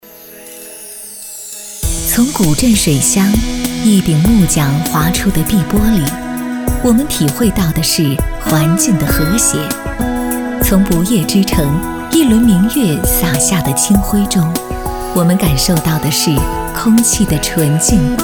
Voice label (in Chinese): 女 城市形象片 江苏